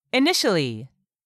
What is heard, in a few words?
"initially", English word; 3000 words